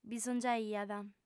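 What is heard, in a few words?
"bisongiaiat", female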